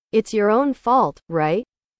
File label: english